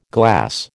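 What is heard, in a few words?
"glass", American English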